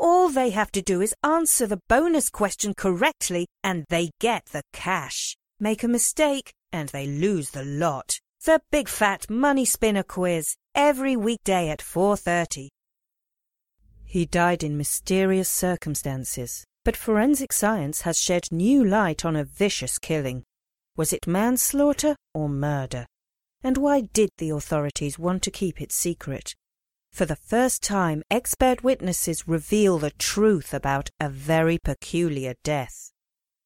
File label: TV show trailers; Promotional